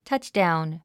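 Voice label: "touchdown", English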